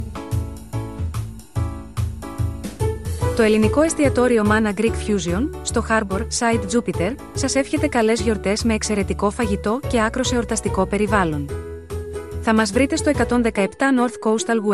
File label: greek; mana harborsie jupiter